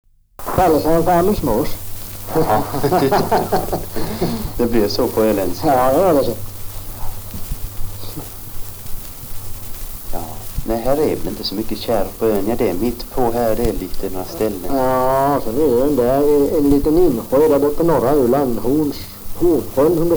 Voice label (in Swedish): dialekter; Folkminnen